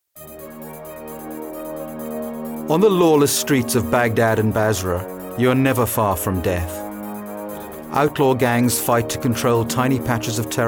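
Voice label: English Male Trailer; ivr voice prompts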